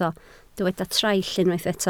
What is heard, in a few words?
"traill", Welsh